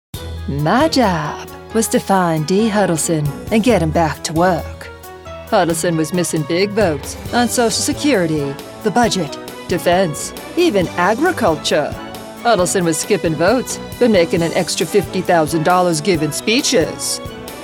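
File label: Humorous Southern voice-over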